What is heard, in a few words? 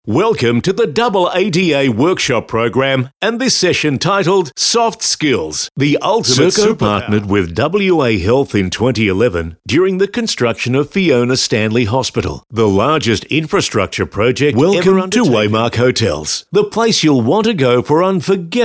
Narrator